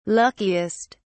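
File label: "luckiest", British English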